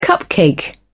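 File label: "cupcake", British English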